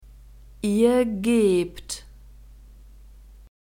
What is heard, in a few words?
"ihr gebt", German